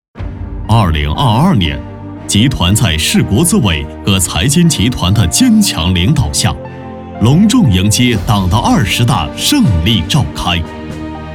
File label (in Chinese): 男 专题片总结; 二零二二年大事记